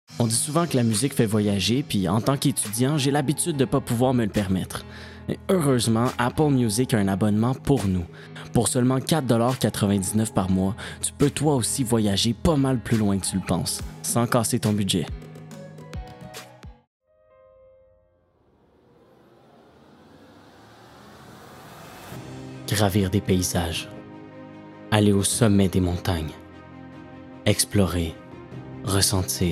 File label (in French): voix pub; Démo voix pub